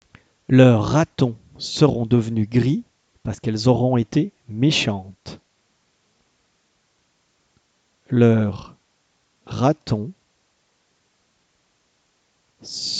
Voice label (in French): dictee bilingue homophones grammaticaux; orthographe